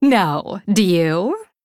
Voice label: Female Blood Elf Emote MIRROR GREETINGS